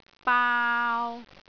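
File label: "baau3", Cantonese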